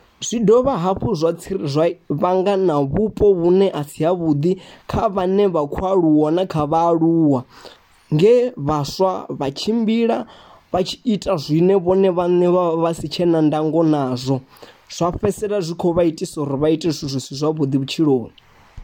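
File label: Venda, voice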